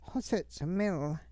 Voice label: clamshell, hupa

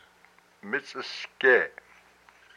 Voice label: "its down", hupa

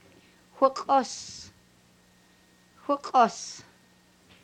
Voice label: my neck, hupa

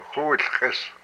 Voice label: "my side", hupa

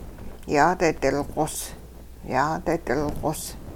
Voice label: we all are biting off something crunchy, hupa

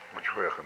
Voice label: "its anus", hupa

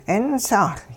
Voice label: yawn command, hupa

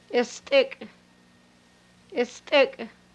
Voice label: narrow, hupa

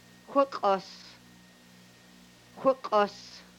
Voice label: my neck, hupa

